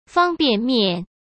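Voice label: "方便面", Mandarin Chinese; hsk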